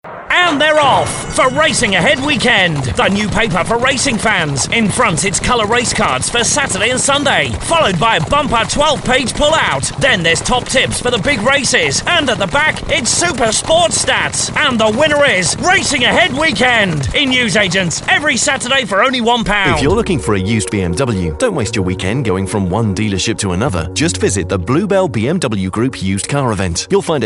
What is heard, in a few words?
Commercial